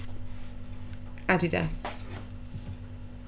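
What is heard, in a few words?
"Adidas", British, female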